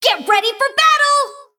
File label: Female Mecha Gnome